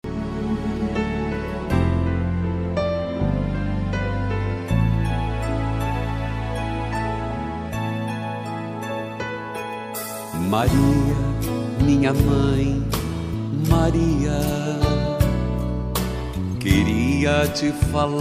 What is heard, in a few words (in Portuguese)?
religiosa fem, Aniversário Religioso – Voz Feminina